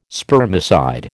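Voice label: "spermicide", American English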